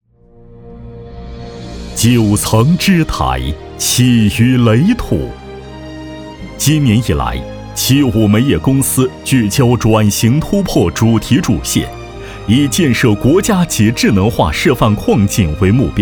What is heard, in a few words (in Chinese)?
企业专题; 七五煤业